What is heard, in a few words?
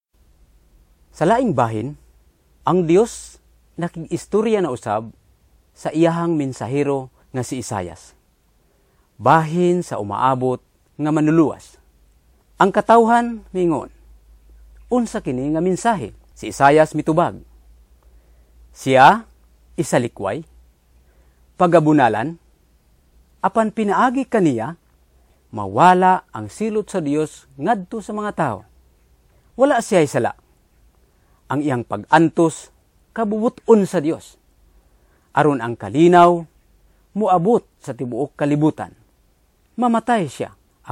Cebuano; Suffering Servant